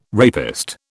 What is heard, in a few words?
"rapist", British